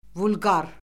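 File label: "vulqar", Azerbaijani; Orfoqrafiya Lüğəti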